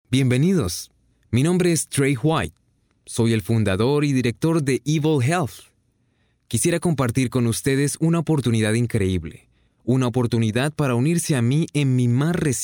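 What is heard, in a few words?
latam colombian male narration; voice demos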